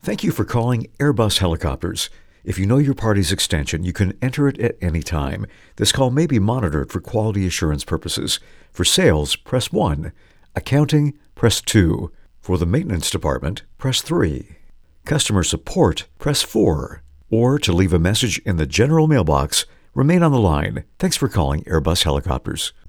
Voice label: auto attendant